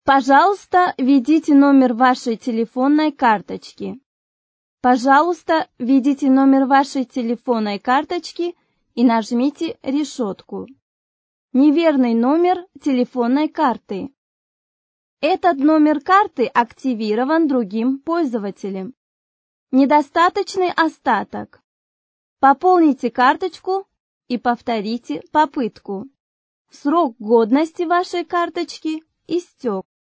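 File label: Russian, female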